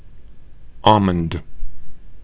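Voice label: "almond", Canadian, male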